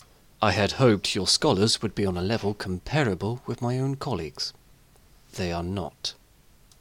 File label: high elf voice auditions; ancano